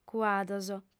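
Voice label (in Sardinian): "cuados", female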